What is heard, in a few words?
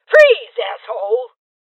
Voices Freeze Female Old; rebel cops 2019 gamerip